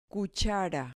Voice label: "cachara", Spanish